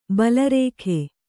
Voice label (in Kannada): "ಬಾಳಾರ್ಕ", kannada